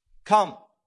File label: Voice Acting, es